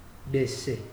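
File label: "gbese", Nigerian Pidgin, male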